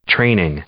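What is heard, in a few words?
"training", English, male; noun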